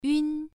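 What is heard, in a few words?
"yun1", Chinese pronunciation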